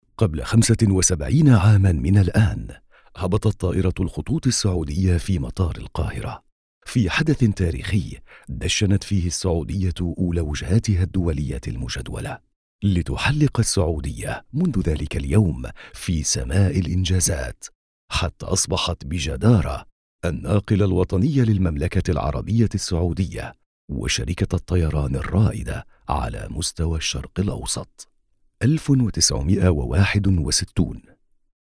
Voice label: male, Arabic narration

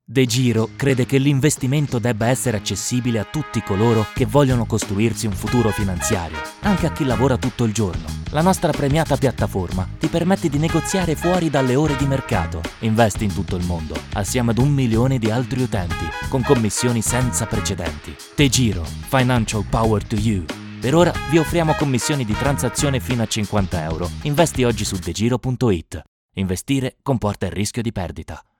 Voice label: male italian radio commercial; degiro

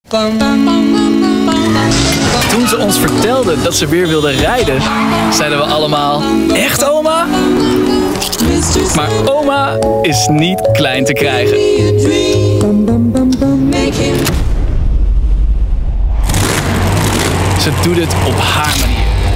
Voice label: male dutch commercial; doritos

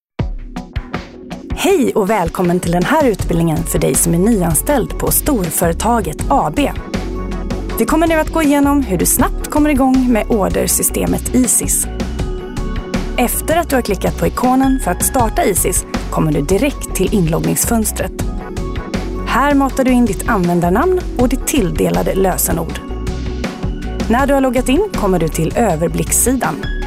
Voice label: female, Swedish e-learning software explainer

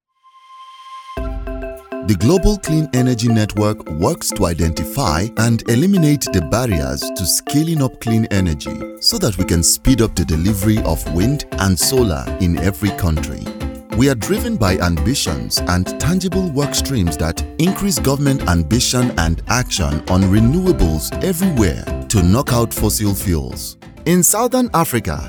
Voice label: male nigerian narration

